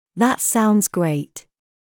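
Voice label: British That sounds great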